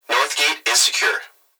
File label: Human Young Male Voice Plant Security Dispatch; North Gate Is Secure; Emergency